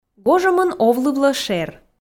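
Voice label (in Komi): "гожӧмын овлывлӧ шер", Komi, female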